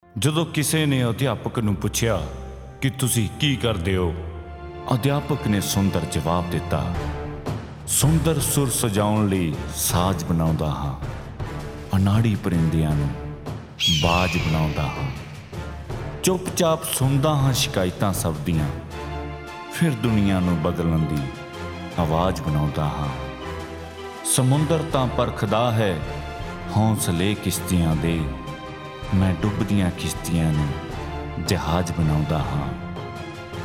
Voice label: Hindi male

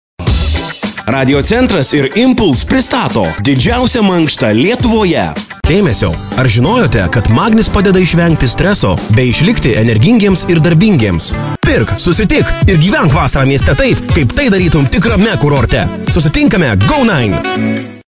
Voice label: Lithuanian male

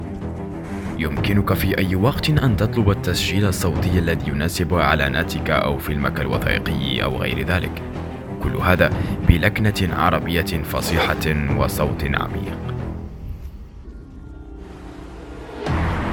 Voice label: Arabic

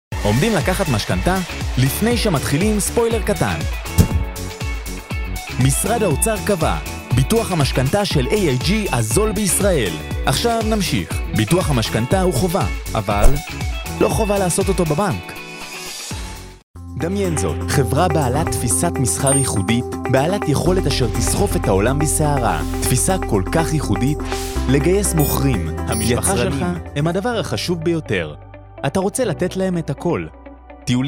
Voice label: Hebrew

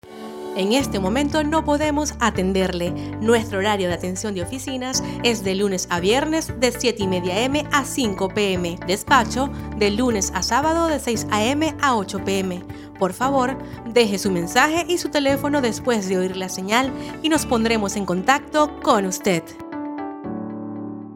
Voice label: Spanish - Latin America

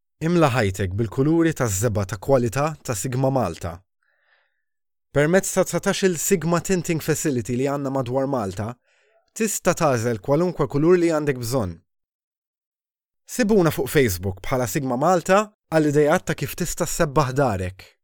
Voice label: Maltese

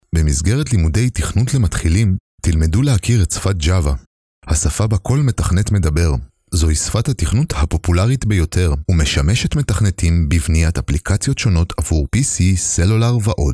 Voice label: Hebrew

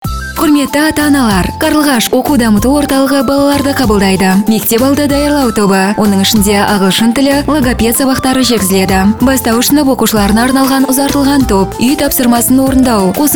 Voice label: Kazakh

